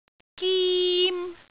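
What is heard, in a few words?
"gim1", Cantonese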